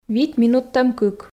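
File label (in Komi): вит минуттӧм кык, Komi, female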